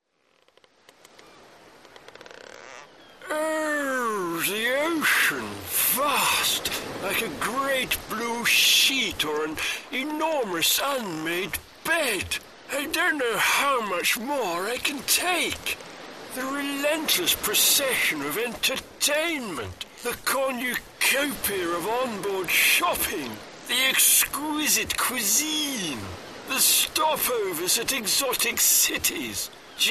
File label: Cruises Commercial; Thompson Cruises